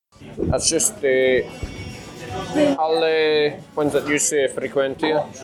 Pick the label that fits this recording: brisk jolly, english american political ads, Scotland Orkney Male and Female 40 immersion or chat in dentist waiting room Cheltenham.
Scotland Orkney Male and Female 40 immersion